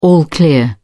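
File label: "all clear", English, British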